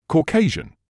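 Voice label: "Caucasian", British English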